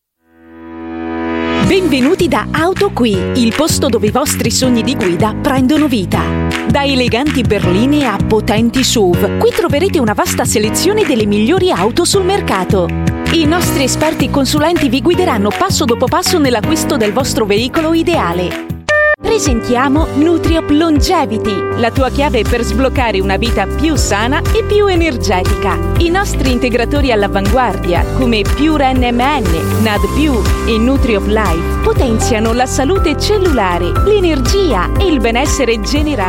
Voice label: italian voice over female commercial; İtalyanca Seslendirme